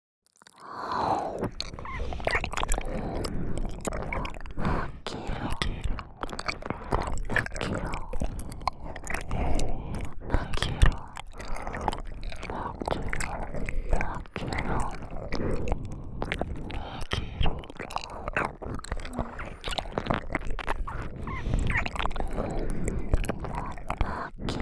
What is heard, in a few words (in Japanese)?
耳舐め囁き 負けろ